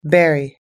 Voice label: "berry", US English

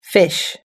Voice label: "fish", us english

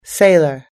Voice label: "sailor", US English

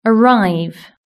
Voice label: "arrive", british english

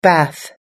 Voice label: "bath", US English

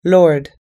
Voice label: "lord", US English

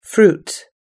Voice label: "fruit", US English

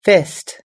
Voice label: "fist", US English

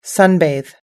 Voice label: "sunbathe", US English

